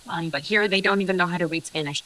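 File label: voice acting, es, female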